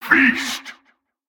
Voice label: Male Chokepoint Line, dialogue; NPC; Heist Cultist Chokepoint